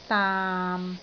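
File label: "daam6", Cantonese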